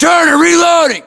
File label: inform reloading, US; battlechatter; english